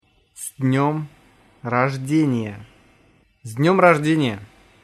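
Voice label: happy birthday russia, Russian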